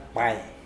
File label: "pwai", Mokilese